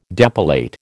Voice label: "depilate", American